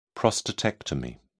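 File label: "prostatectomy", British English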